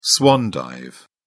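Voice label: "swan dive", British English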